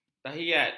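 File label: "tahiyat", Malay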